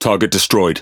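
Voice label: Speech Pilots Fighter Tangodown, British English; World Of Warships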